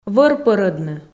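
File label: "вӧр пӧрӧдны", Komi, female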